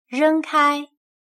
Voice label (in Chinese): "仍开", chino